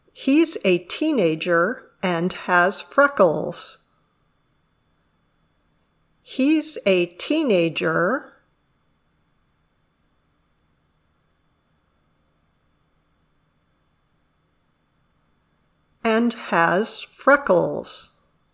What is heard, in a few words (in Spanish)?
Dictado